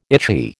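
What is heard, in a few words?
"itchy", American English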